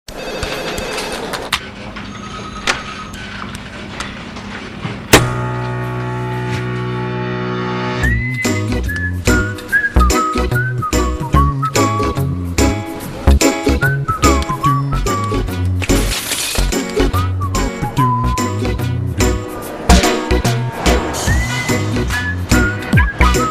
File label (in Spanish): Publicidad